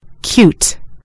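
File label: "cute", American English; gcompris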